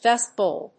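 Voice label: "dust bowl", English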